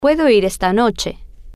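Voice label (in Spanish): Puedo Ir Esta Noche, spanish, female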